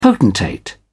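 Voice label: "potentate", British English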